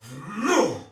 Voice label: Attack, Soldier Male; Sinecure

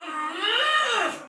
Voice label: Attack Heavy, Soldier Female, Battle; Sinecure

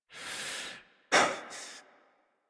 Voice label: Adult; Dax Battle; Warframe; Duviri Paradox Quest

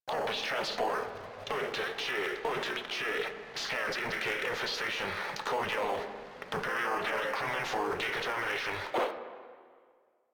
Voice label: Controller, Radio Interceptions; Customs; Grineer Controller

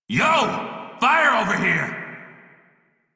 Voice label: Crew See Fire Male en; Dialog; Warframe; Railjack Crew; Red Veil

